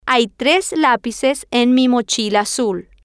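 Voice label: hay Tres Lapices En Mi Mochila Azul, Spanish, female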